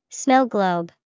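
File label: snow globe, English vocabulary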